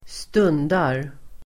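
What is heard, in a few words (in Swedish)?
"stundar", Swedish; Uttal: [²st'un:dar]; approach, draw near; snart inträffa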